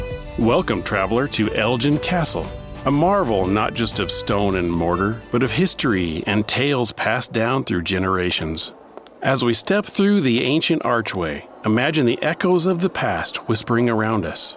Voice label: Narration Travelogue